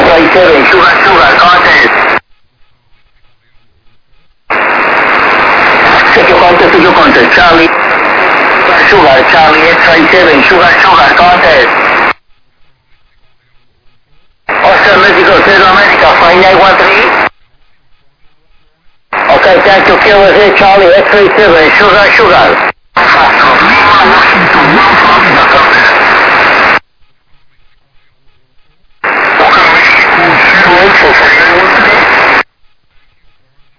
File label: USB, 21MHz; CQWWSSB; CQWWDX-SSB-2023